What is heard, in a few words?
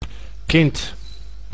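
"kind", Dutch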